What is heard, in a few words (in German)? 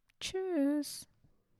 "Tschuss", German; basic german; essential phrases